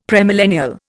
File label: "premillennial", Indian; Dictionary